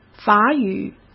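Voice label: "法语", Mandarin Chinese; hsk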